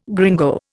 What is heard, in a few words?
"gringo", Indian